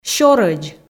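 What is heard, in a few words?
"сёрӧдз" Komi, female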